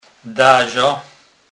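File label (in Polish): "daza", Kurpie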